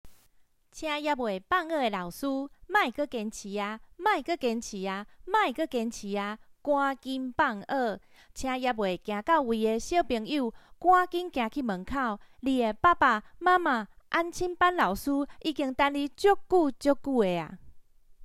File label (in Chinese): 放學催收崗台語版